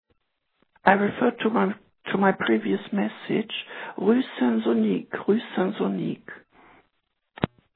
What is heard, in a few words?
Voicemail